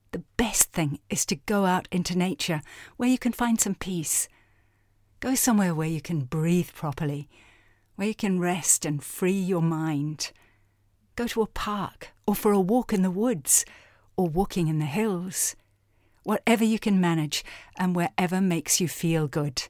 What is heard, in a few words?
conversational nature; Muttersprache Englisch